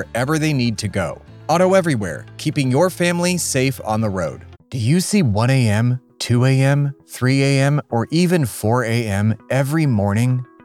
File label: Commercial - Mixed (With Music)